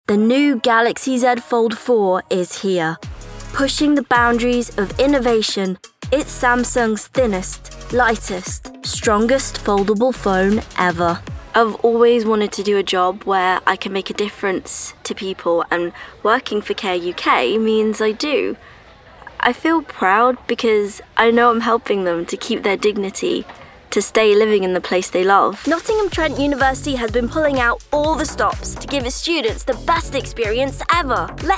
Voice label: Commercial